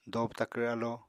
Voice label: "dobw takvr alo", Apatani